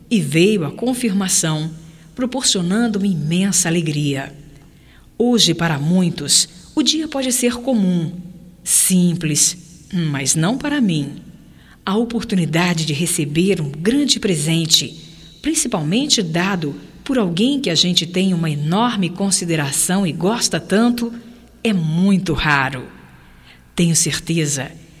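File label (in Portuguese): AGRAD PELA MENSAGEM feminino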